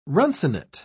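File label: "runcinate", British English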